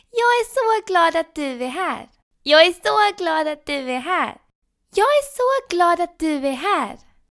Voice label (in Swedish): Playful Childlike SWE, VO